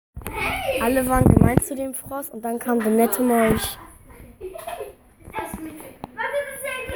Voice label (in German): Sprachmemo